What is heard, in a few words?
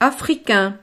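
"africain", French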